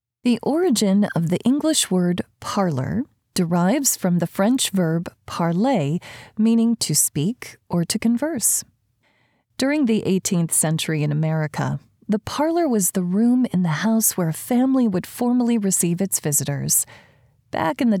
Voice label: Non Fiction, Audiobook; Women’s history of the home cocktail parlor